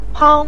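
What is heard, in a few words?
"pang", Mandarin Chinese neutral tone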